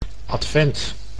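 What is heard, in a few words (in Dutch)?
"advent", Dutch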